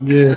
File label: "yeah", big; ooh ahh